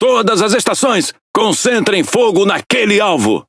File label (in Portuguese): Speech Quick Commands Enemy Ship, PT BR; World Of Warships